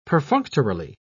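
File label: "perfunctorily", British English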